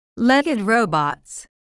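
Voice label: "legged robots", English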